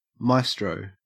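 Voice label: "maestro", Australian English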